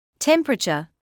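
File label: "temperature", British English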